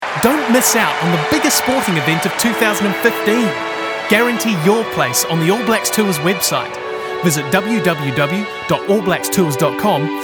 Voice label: Commercials reel